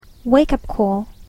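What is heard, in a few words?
"wake up call", English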